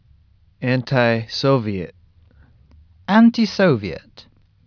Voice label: "ANTI-SOVIET", USUK Pronunciation